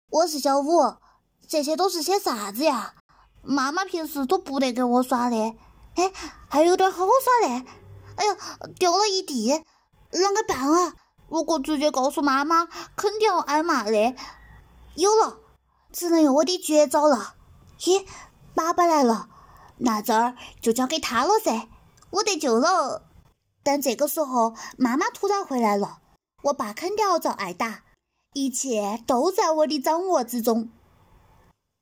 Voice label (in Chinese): 方言